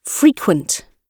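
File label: "frequent", British English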